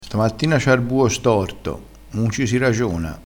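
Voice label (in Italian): "buo storto", dialettale crespinese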